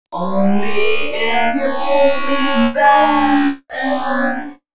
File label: female g#125, voice; FX; Mutekki Media; Dance Ultra Voice VOL-1